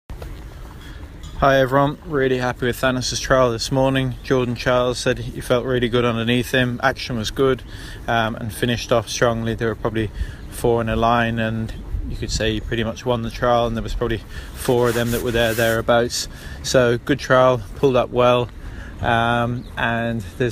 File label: voice note; Thanos